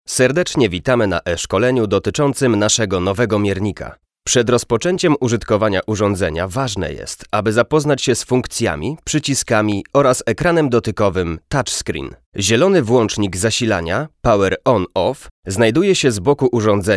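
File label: e-learning, male, PL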